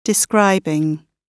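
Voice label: "describing", British English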